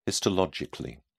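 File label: "histologically", British English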